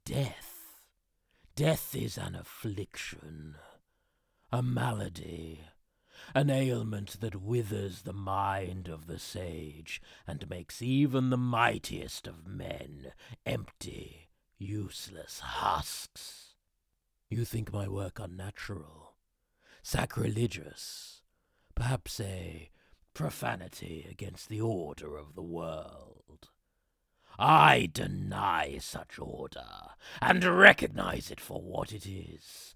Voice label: Necromancer (Animation) English Middle Aged Adult Male